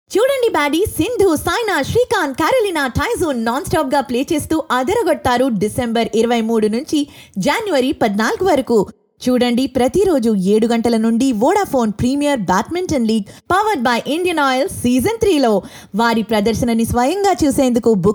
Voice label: Jingle Telugu, female

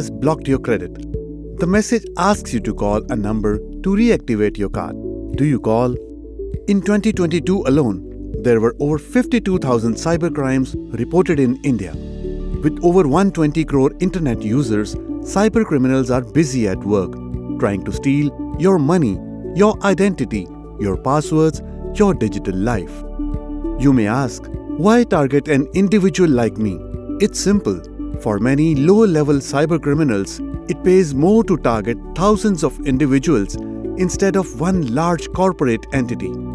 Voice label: Explainer English Cybersecurity

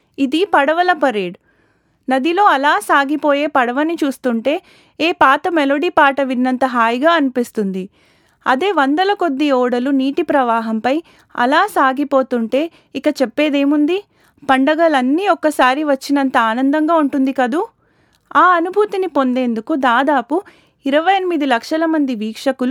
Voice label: Narration Telugu

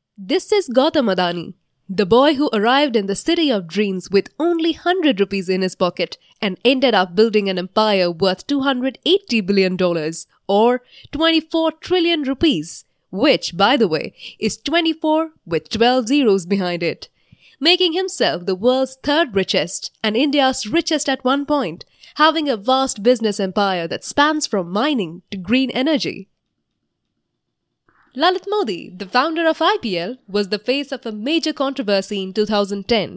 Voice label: Narration English

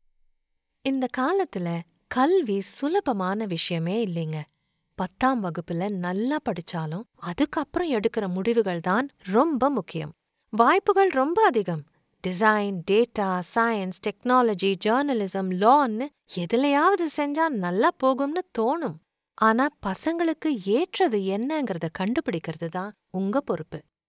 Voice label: Conversational Elearning Tamil

